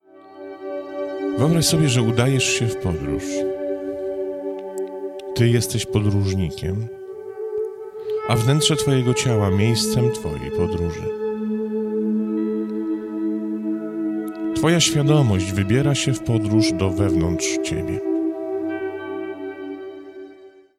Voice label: meditation narration 432; between quarks